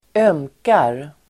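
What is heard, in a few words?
"ömkar", Swedish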